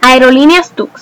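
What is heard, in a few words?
plane, Spanish; tuxpaint-stamps; vehicles; flight; cartoon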